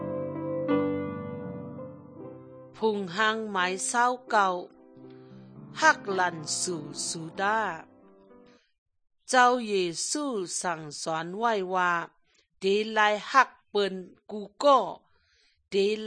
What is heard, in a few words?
good news shan eastern, drama